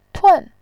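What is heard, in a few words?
"tun", Mandarin Chinese, fourth tone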